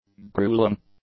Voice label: "gruelling", english; adjectives